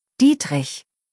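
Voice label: "Dietrich", German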